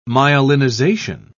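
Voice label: "myelinization", British English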